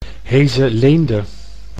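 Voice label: "Heeze-Leende", Dutch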